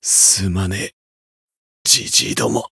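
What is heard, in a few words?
retire, Japanese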